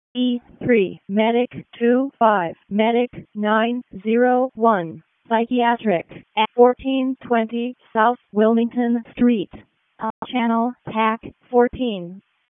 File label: WC EMS ALERT, p25